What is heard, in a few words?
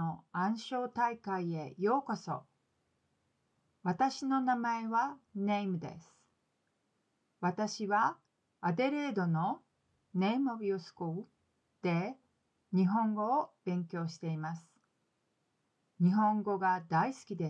Natural Speed; Continuers